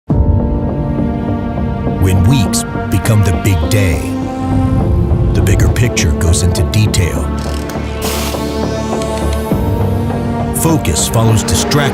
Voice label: Conversational male voice, E-Learning, Clear, Friendly Elearning Voice; This Demo Features A Clear, Friendly Elearning Voice Designed For Online Cour; Audi Sport